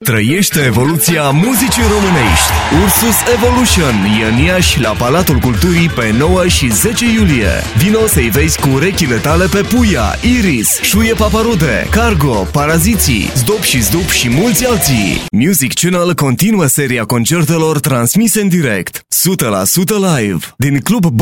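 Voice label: Romanian, General; GoLocalise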